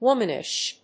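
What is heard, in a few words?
"womanish", English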